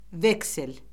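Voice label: "veksel", Azerbaijani; Orfoqrafiya Lüğəti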